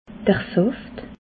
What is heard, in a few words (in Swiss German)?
"saft", alsace